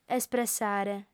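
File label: "espressare", Sardinian, female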